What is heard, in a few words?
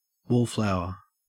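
"wallflower", Australian English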